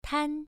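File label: "tan" Mandarin Chinese, first tone, pronunciation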